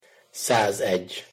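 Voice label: "szazegy", Hungarian pronunciation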